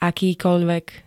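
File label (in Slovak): "akýkoľvek", Slovak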